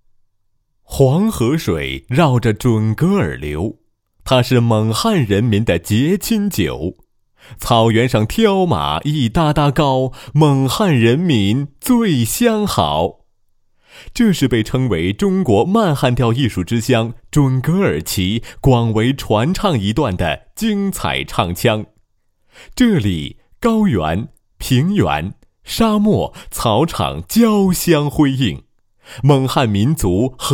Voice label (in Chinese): 男 专题; 不说准格尔旗